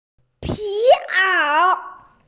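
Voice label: "pí ǎo", Mandarin Chinese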